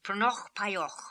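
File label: "Prnokhpaioch", pronunciations; Fourth Way; Beelzebub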